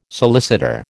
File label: "solicitor", American